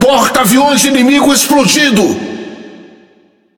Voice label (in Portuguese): Speech Destroy Enemy Aircarrier, PT BR; World Of Warships